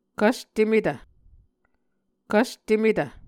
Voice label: "kvstemetv", Muscogee